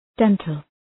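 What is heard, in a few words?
"dental", English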